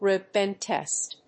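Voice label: "root-bend test", English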